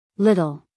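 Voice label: "little", American English, female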